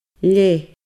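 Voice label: "leth", Scottish Gaelic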